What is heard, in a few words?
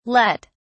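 "lett", British English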